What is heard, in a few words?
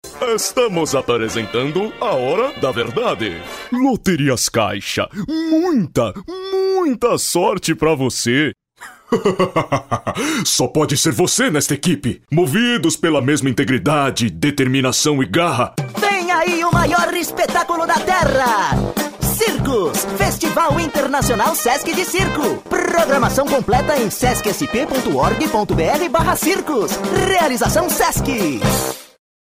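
portuguese brazilian male; character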